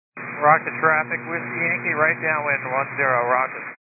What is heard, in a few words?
122 725MHz, scanner radio